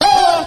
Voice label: "ho" group of men